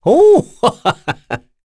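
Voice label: Vox Happy, Korean; heroes; Kain; kings raid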